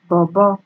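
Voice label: "bobo", Nigerian Pidgin, female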